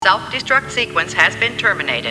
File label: computer voice, self destruct sequence terminated